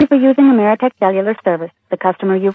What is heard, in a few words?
cellular service message